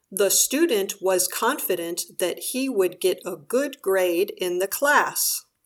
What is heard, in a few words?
confident sentence